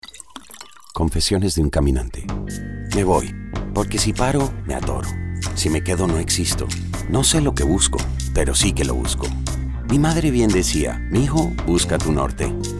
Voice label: Spanish VO; Johnnie Walker